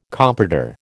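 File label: "comprador", American English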